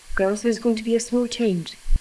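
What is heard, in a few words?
voice acting, es, female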